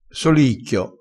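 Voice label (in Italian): "solicchio", dialetto crespinese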